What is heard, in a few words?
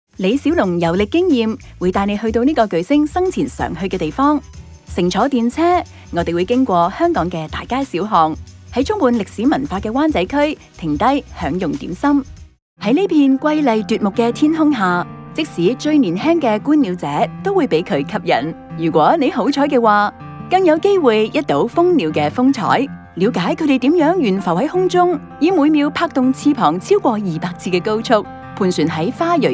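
Cantonese, narration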